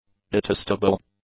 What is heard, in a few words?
"detestable", english; adjectives